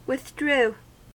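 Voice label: "withdrew", American English; pronunciations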